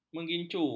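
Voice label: "menggincu", Malay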